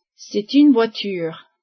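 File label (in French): "voiture", French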